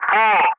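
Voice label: "3", loud, English; Ka-50; Wingman; DCS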